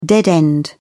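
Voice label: "dead end", en, British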